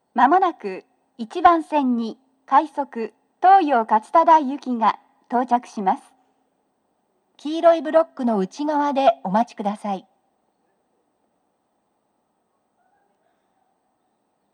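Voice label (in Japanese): 接近放送, 女声, 放送は「快速 東葉勝田台行き」です。; TOA円型での収録です。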